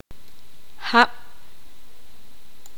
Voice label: poqomam; lluvia